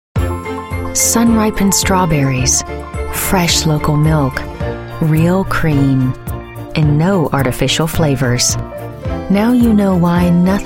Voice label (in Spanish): comercial ingles USA